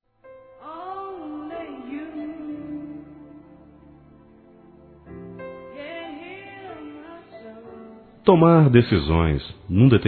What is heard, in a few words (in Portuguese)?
Noivado Masculino; Telemensagens; Parabenização